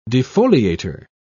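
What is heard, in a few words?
"defoliator", British English, dictionary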